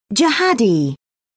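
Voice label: "jihadi", British English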